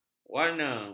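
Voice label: "warna", Malay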